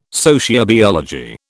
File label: "sociobiology", British